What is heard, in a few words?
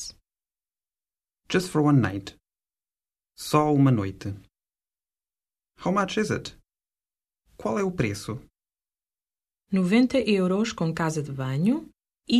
booking room, Portuguese phrasebook; European Portuguese; The Rough Guide Portuguese phrasebook audio